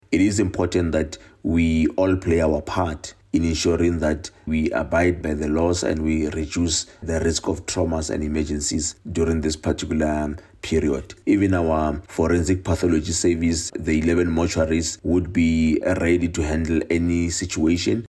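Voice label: English; Healthcare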